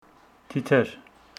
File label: Homshetsi butterfly